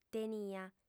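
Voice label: "tenia", female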